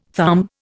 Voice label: "thumb", Indian

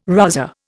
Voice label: "rozzer", Indian

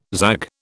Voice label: "zag", British

